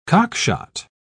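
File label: "cockshot", English, British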